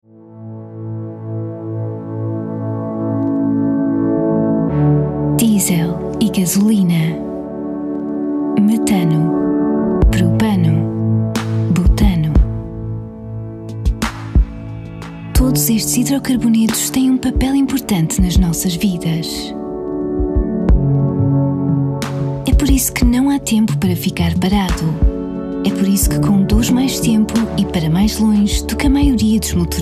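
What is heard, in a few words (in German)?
Portuguese, Werbung Sanft; Fremdtake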